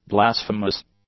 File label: "blasphemous", English; adjectives